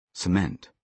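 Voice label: "cement", British English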